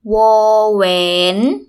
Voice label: woor waen, Thai

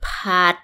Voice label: fry, Thai

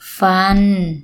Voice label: teeth, Thai

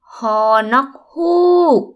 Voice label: hoor nogg huug, Thai

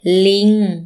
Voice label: monkey, Thai

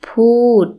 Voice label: speak, Thai

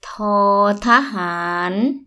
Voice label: toor ta haan, Thai

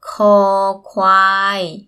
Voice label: koor kwaii, Thai

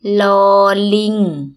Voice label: loor ling, Thai

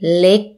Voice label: small, Thai

